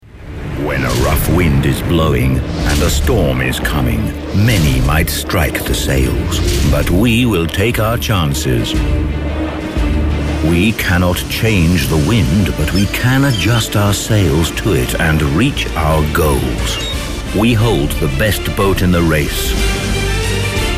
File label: Industry Corporate Motivational Image Film